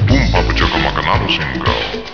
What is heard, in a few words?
gibberish